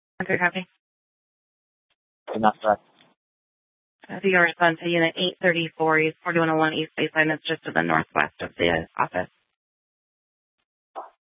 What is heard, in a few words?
Gilbert Police Dispatch